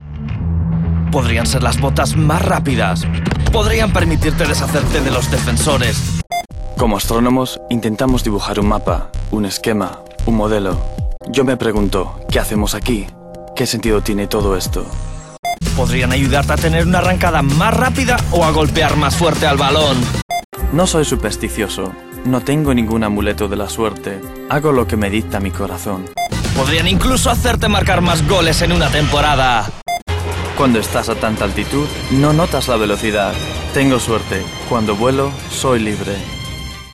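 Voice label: European Spanish Commercial; GoLocalise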